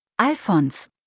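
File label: "Alfons", German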